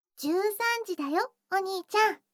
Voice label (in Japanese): 時報13時; 全肯定ロリママのあまあまでえっちな添い寝; いもおかASMR